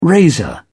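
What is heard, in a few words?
"razor", British English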